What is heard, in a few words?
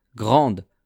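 "grande", French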